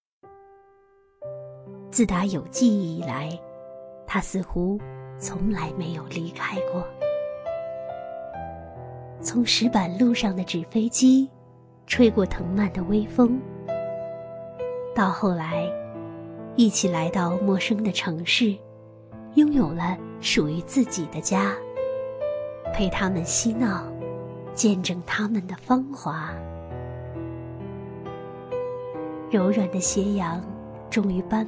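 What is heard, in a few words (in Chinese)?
女旁白; 自打有记忆以来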